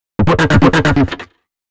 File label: male d# 130; FX; Mutekki Media; Dance Ultra Voice VOL-1